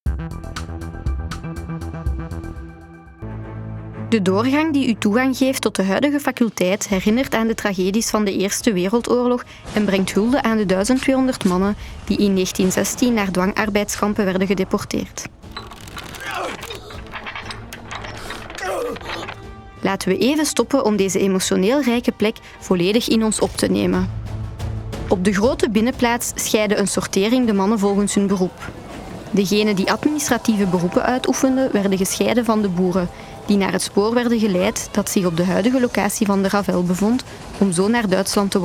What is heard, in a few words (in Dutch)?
NL Audioguide; Gembloux; Passage des deportes